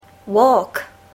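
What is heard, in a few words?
"walk", English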